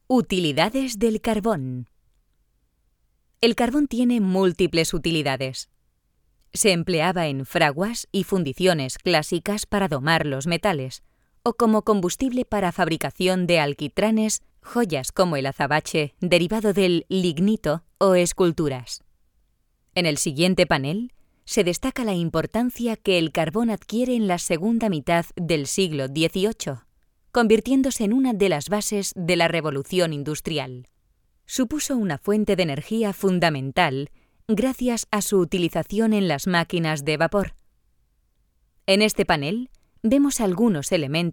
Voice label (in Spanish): audioguia; audioguia museo de la mineria; esp